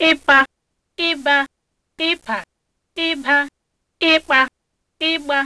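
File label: Oweri-igbo, implosiver; [ípa íba ípʰà íbʱa íɓ̥a íɓa]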